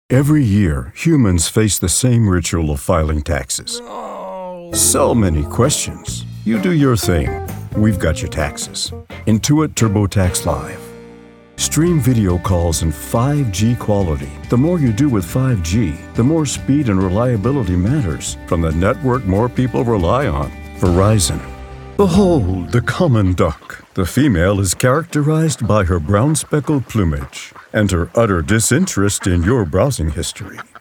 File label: Commercial